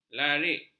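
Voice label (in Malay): "larik", Malay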